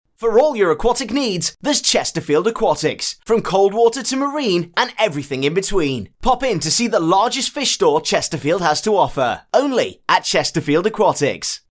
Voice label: male british voice over sales, english british